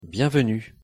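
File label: "bienvenue", French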